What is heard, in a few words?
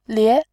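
"lie2", Mandarin Chinese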